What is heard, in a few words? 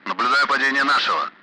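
Speech Sound Russian Common Allied Flight Messages loud; member down; DCS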